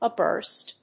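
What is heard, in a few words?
"a burst", English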